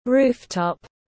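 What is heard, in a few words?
"rooftop", English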